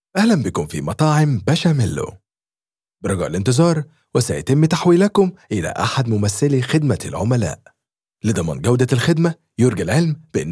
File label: IVR; Bashamelo